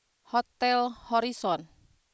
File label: news